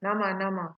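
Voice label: "namanama", Nigerian Pidgin, female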